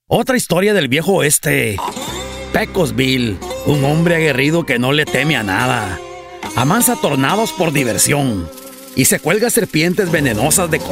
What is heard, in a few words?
spanish latin american animation